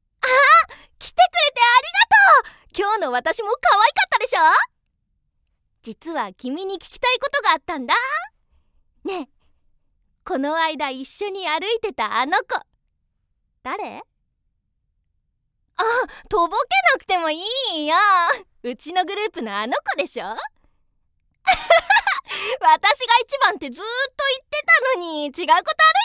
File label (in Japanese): 詰め寄るアイドル(中高生 可愛い)